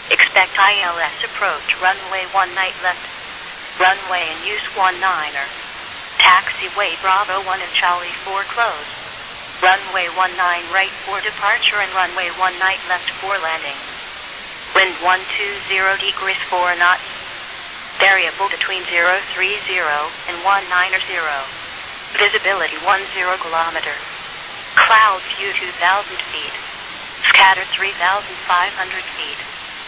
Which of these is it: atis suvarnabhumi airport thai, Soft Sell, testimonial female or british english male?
atis suvarnabhumi airport thai